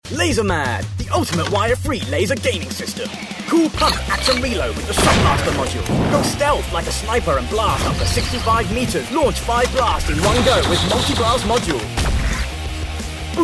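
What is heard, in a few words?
British male TVC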